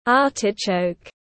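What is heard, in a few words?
"Artichoke", English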